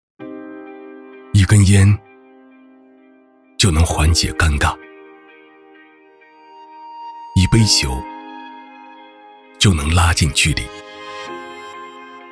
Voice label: 男 品质走心 清廉

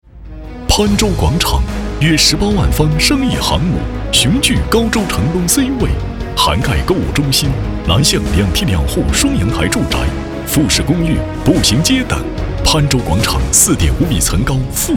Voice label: 男 电台广告类; 潘州广场

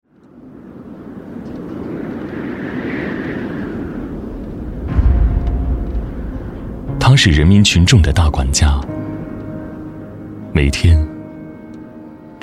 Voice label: 男, 走心独白; 共产党员

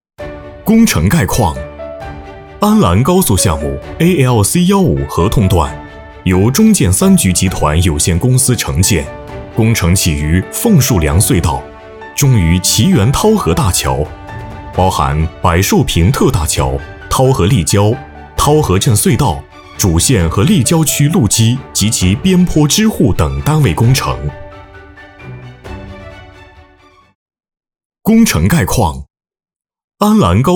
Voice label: 男 专题宣传 大气有力度 工程汇报

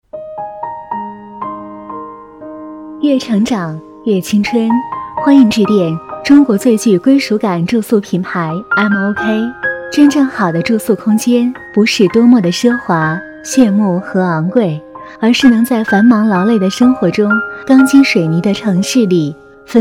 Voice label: 女,彩铃; 越成长-越青春

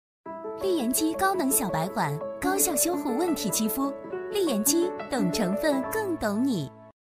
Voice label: 女 广告; 丽颜肌广告; 浙江卫视

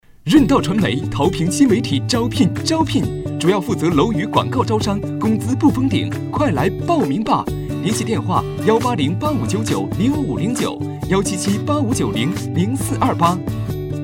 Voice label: 男, MG风格, 招聘; 润道招聘

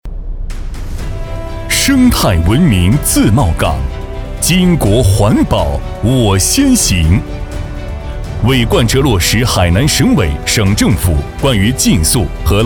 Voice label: 男 专题 总结视频; 2023巾帼禁塑3年

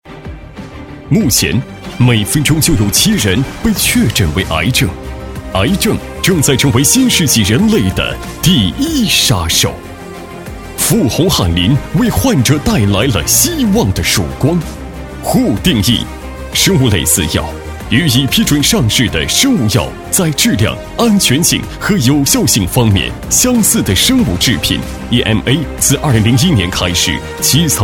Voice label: 男 促销; 复宏汉霖

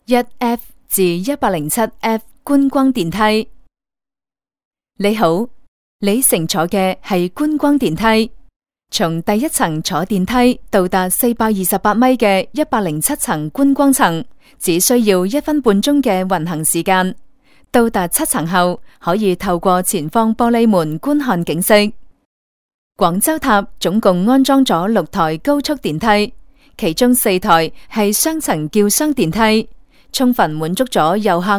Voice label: 粤女 广州塔介绍

